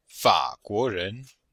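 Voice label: "fa3guo2ren2", Chinese; Cours-de-Chinois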